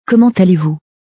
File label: "Comment allez", french